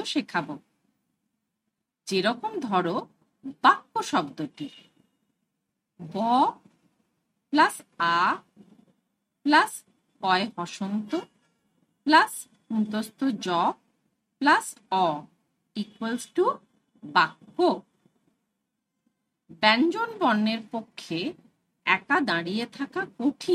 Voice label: Bengali